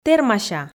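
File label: "тэрмася", Komi, female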